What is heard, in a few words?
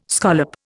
"scallop", Indian